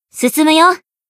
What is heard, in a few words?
Battle Tactical Action; Rumi